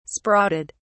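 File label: "sprouted", English, British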